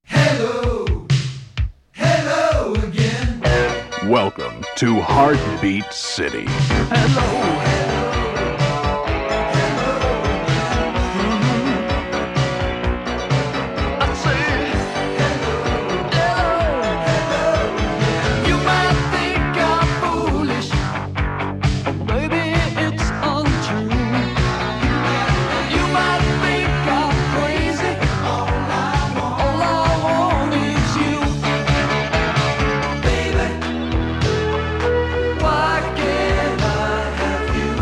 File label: Radio Commercials LP